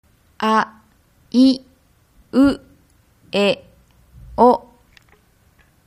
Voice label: aiueo, Japanese